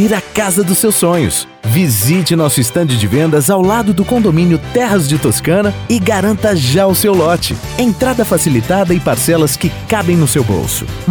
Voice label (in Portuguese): spot para construtora com o locutor